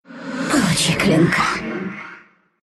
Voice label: attack, ru; dota2

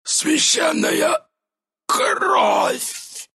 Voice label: death, ru

